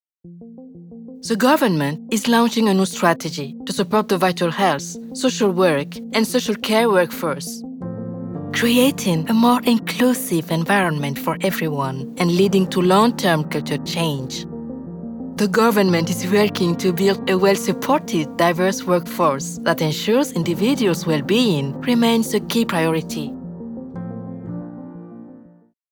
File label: E-learning African Accent; Internal Company Training Video; Caring, Compassionate, Sympathetic